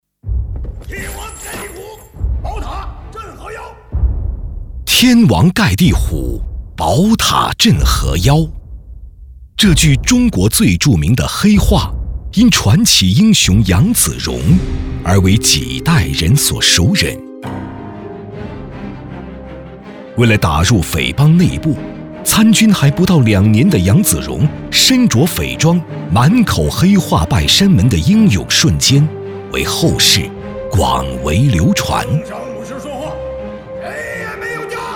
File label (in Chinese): 男 建党百年样音 生动讲述; 杨子荣